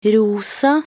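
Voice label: "rosa", Norwegian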